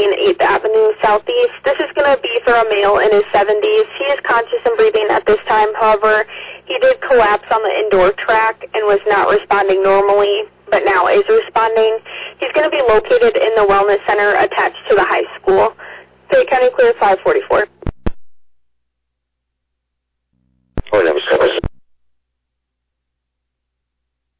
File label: Oelwein Ambulance, dispatch